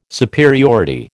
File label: "superiority", American